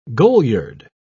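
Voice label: "goliard", British English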